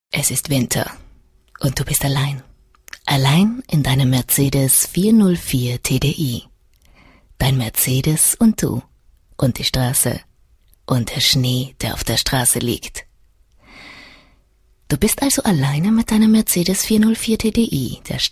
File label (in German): Frau OFF